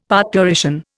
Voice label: "parturition", Indian; Dictionary